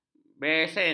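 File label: "besen", Malay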